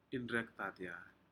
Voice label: "inrekdanya", Aneityum; fish